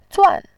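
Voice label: "zuan" Mandarin Chinese, fourth tone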